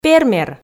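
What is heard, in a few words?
"пермер", Komi, female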